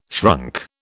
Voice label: "shrunk", British